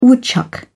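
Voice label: "woodchuck", British English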